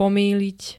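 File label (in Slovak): "pomýliť", Slovak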